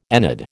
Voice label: "ennead", American